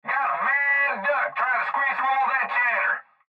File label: Cb Trucker Radio; Radio Voice Sound Effects; Voice Clip Young Male Come Again Good Buddy Someone Just Walked All Over Ya; Blastwave